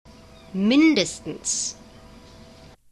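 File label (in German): "mindestens", German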